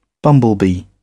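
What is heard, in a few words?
"bumblebee", British English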